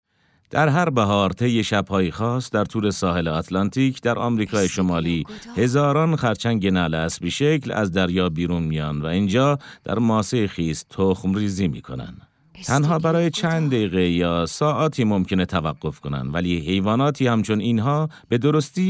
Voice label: documentary